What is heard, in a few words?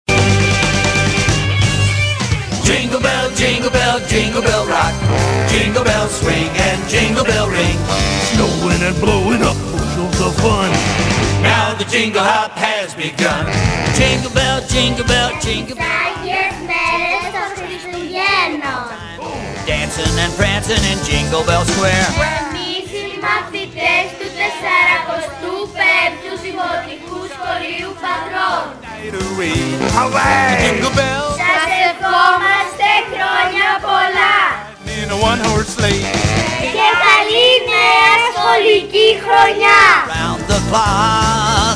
christmas spot; 45o patras